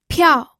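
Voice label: "piao", Mandarin Chinese, fourth tone; pinyin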